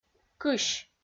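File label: "кысь", Komi-Zyrian, female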